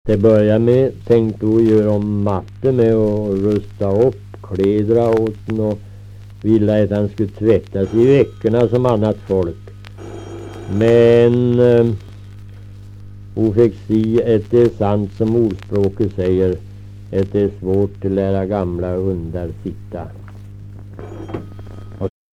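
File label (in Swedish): Riala Uppland, dialekter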